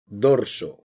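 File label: "dorso", Spanish, castilla masculino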